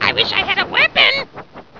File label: annoyed; goblin zeppelin